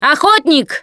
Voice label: warn hunter, russian, Survivor Voice Producer